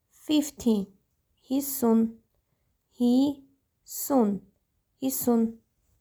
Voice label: "50 hisun", Armenian